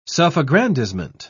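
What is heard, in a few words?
"self-aggrandizement", British English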